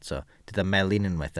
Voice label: "melin", Welsh

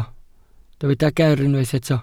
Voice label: "geer", Welsh

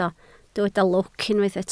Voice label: "lwc", Welsh

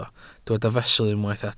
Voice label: "fesul", Welsh

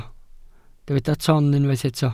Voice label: "ton", Welsh

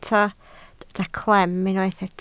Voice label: "clem", Welsh

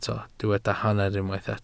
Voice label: "hanner", Welsh

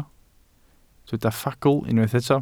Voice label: "ffagl" Welsh

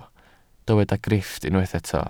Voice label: "grifft", Welsh

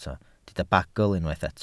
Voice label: "bagl", Welsh

